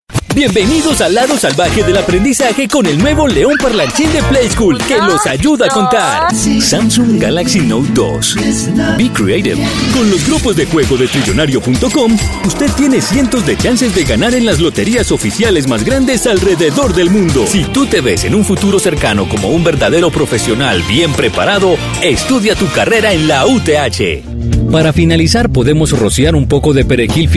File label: Latin Spanish; Male